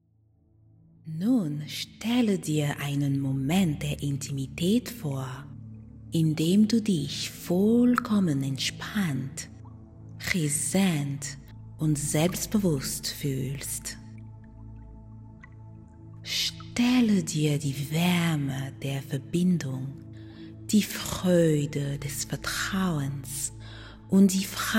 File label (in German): Impotenz uberwinden Hypnose fur Selbstvertrauen in der Intimitat und Vitalitat